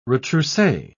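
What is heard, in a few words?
"retrousse", British English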